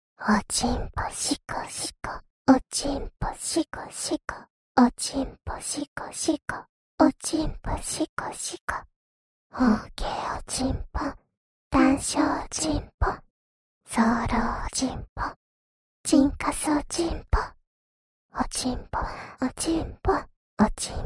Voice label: 緊貼低語服侍; 自慰輔助用特典音聲

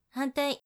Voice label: 另一边, 女生 姐姐

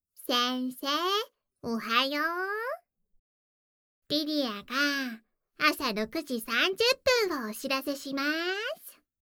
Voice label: 系統語音 早上6點30分報時; 莉莉亞

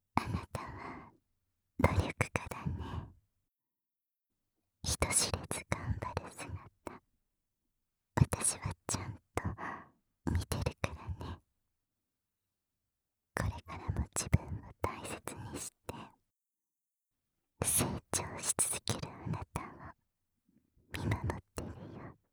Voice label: 全肯定轻语音声

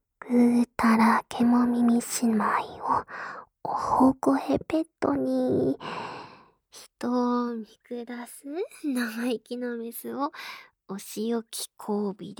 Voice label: 自由谈话

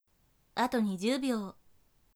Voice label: 剩20秒, 女生; 计时30秒

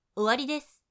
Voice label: 结束, 女生为你进行健身计数的语音, 姐姐

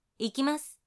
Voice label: 要上了, 女生

